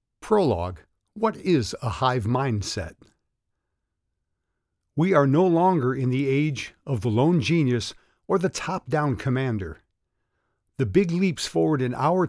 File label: Audiobook